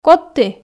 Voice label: "кодті", Komi

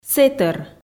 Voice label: "сэтӧр", Komi

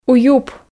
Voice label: "уюп", Komi

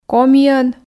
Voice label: "комиӧн", Komi

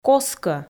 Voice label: "коскӧ", Komi

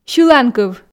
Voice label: "сьыланкыв", Komi

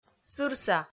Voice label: "сырса", Komi

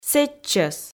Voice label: "сэтчӧс", Komi

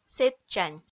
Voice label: "сэтчань", Komi

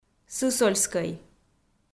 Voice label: "сысольскӧй", Komi

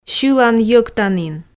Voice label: "сьылан-йӧктанін", Komi

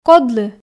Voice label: "кодлы", Komi

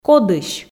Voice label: "кодысь", Komi

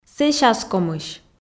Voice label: "сэсьаскомысь", Komi

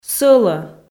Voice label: "сӧлӧ", Komi

